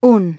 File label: "one", masculine; iOS 4